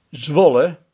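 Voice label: "Zwolle", Dutch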